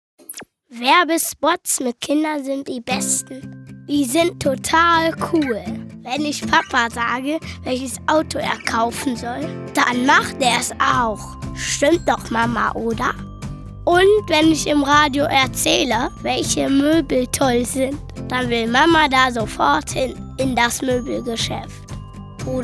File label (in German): Werbung Kinderstimmen